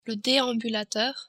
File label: "deambulateur", french